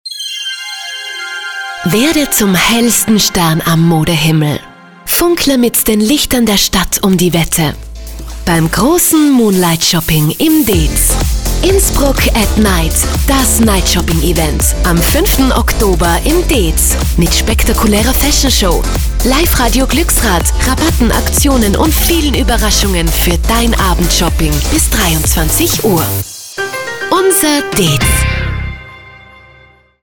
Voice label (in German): Radiospot; Einkaufszentrum dez; Moonlight Shopping